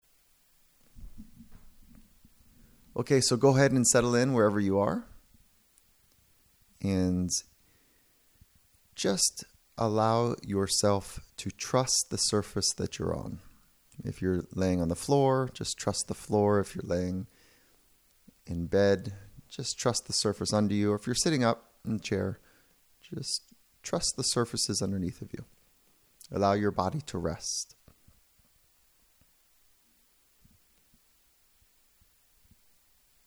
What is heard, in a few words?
Ocean, mindfulness sessions